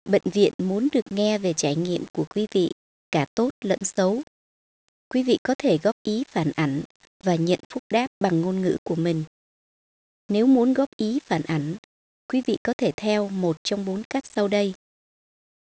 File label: Vietnamese How to provide feedback; Bấm vào dấu hiệu cái loa để nghe thông tin tiếng Việt.